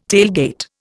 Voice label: "tailgate", Indian